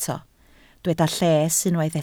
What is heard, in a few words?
"lles", Welsh